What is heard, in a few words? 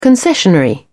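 "concessionary", British English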